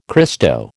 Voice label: "Christo", American